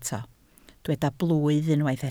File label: "blwydd", Welsh